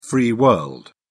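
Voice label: "free world", British English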